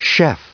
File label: chef, en-us